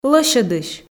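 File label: "лӧсьӧдысь", Komi, female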